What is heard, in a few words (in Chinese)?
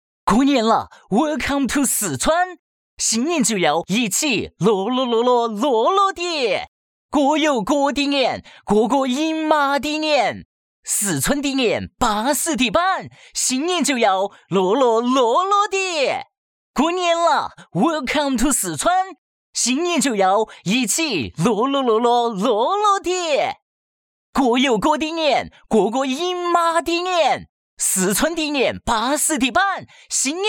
男,TVC广告,四川话; 雅迪